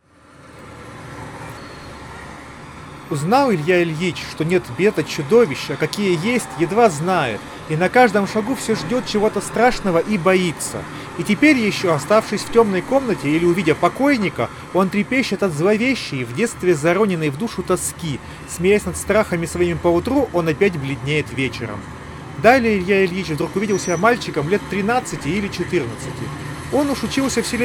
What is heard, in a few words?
Recorder noise; moondrop golden ages2